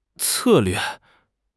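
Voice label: "策略", Chinese; HSK6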